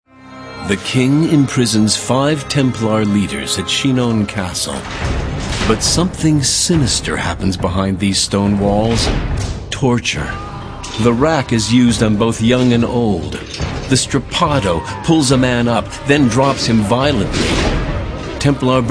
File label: male narration